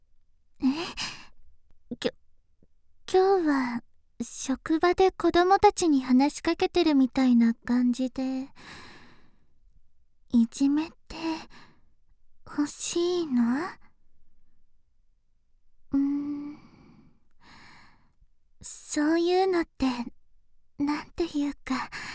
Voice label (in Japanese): 幼稚園の先生風 効果音有り